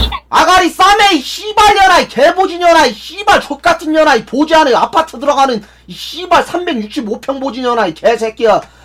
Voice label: scout jeers

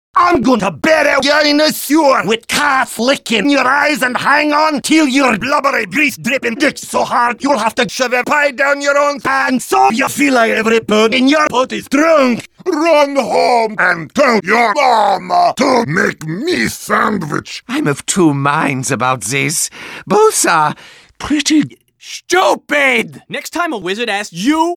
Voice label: battlecry; voice packs; demoman

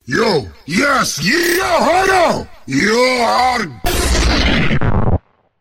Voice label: rage heavy; missingteam

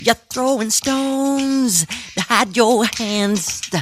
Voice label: spy jeers; spy jackson

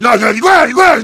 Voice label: heavy good job

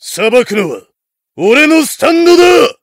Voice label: heavy taunts

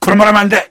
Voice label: negative vocalization; sniper

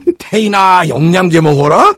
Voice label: jeers

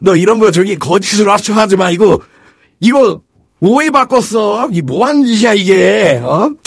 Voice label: engineer battlecry

